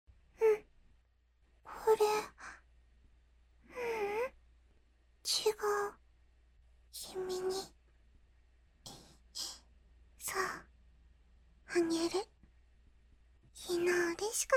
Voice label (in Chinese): 外籍日语女 角色 空灵萝莉